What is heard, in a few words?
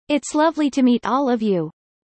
fast; four seasons